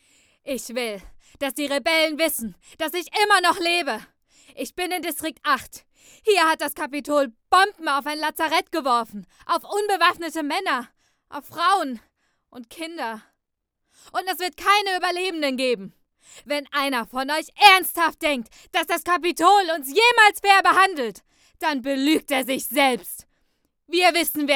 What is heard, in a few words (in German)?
Schauspiel